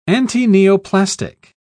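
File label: "antineoplastic", British English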